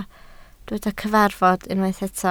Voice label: "cyfarfod", Welsh